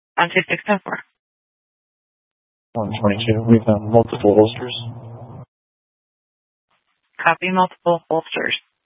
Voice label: Sheriffs Office, scanner